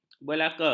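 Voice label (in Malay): "belaka", Malay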